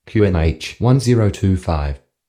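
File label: qnh 1025, Australian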